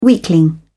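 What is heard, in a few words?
"weakling", British English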